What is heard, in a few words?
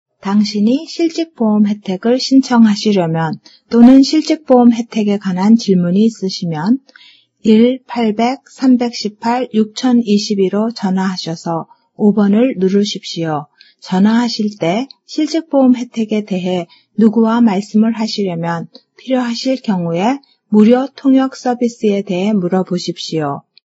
korean